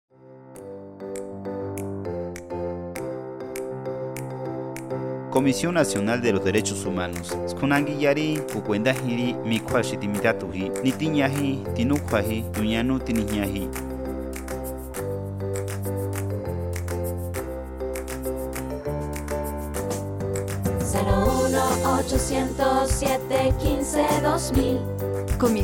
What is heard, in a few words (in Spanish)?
Spot mazateco; Campanas